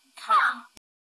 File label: Voice Acting es